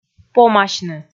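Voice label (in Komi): "помасьны", Komi, female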